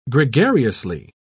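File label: "gregariously", British English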